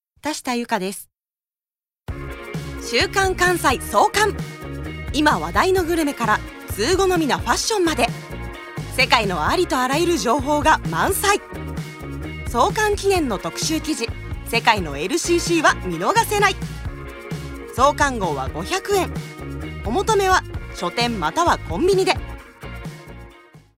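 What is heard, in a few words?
commercial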